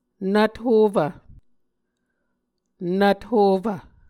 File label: "nvthofv", Muscogee